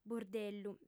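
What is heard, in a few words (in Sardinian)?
"burdellu", female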